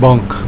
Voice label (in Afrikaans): "bank", Afrikaans